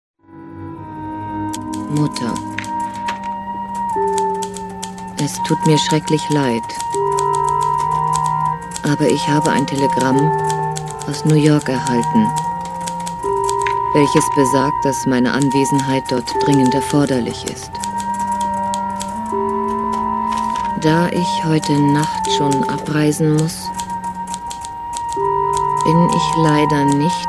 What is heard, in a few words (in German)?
Schauspiel Neutral